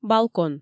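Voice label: "балкон" Komi, female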